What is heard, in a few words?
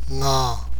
"nga", tibet alphabet